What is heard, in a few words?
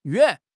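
"yuan3", Chinese; HSK2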